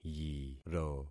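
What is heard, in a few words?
"yiro", concatenated syllables; Affixing experiment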